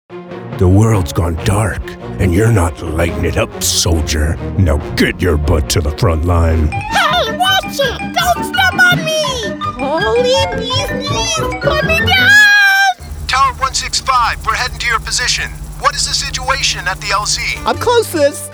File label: Character